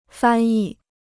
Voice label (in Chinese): "翻译", Chinese